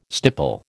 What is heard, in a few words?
"stipple", American